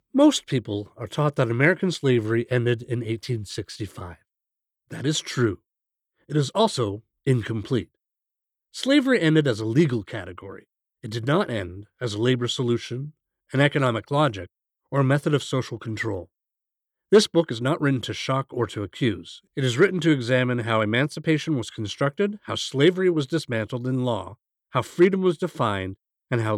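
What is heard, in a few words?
Canadian English; The Prison Clause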